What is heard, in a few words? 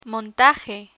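"montaje" Spanish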